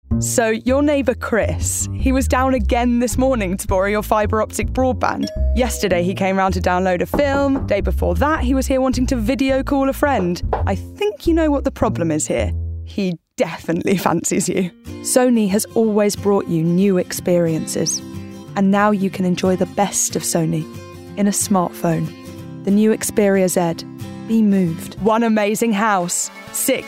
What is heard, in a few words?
commercial voice